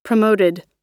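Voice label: "promoted", American English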